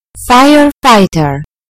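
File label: "firefighter", British English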